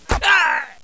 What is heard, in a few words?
Germ Ouch; Close Combat